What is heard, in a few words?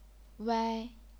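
"wai", chinese pinyin, first tone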